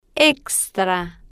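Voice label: "extra", Spanish, female, slow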